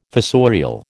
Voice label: "fossorial", American

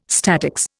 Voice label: "statics", Indian

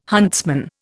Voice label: "huntsman", Indian

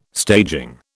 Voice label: "staging", British

